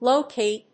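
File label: "low key", English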